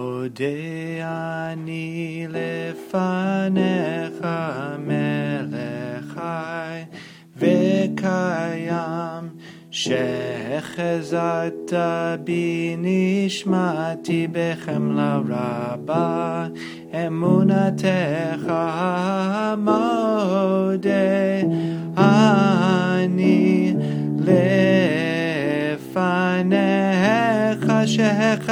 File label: modeh ani pronunciation melody